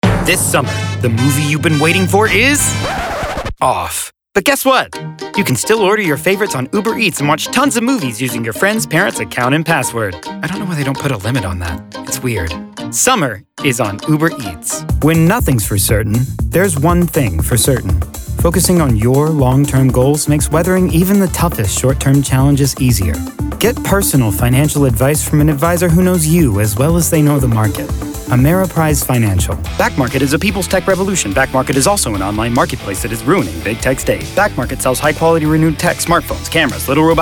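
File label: Commercial, voices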